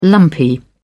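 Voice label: "lumpy", British English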